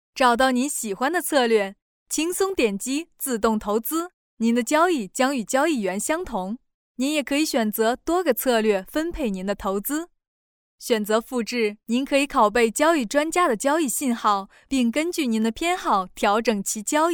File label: female chinese voice over upbeat, mandarin